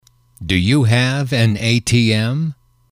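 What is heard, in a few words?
do you have an ATM, male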